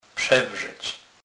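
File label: "przewrzec", Polish, Kurpie dialect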